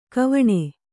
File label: "ಕ್ವಣ", Kannada